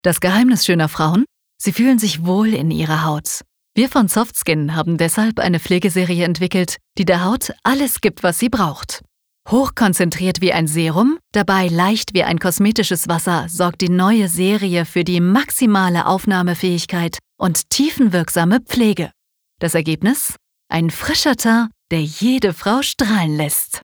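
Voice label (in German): Werbung Soft Skin Freundlich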